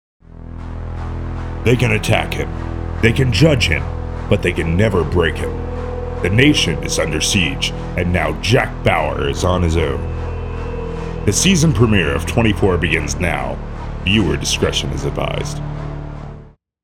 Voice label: voice over